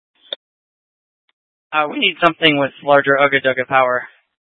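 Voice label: Incident Tac 3, Scanner audio recording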